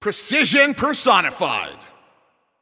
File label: Announcer Especially Good Shot